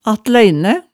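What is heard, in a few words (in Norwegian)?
"attæine", Hemsedal dialect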